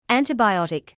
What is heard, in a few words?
"antibiotic", English